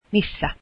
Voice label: where, finnish